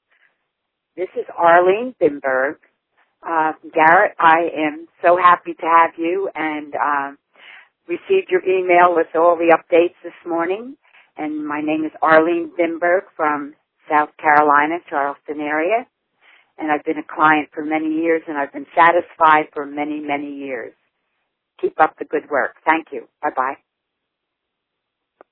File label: Voice Testimonial